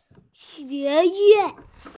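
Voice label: "xué yuàn", Mandarin Chinese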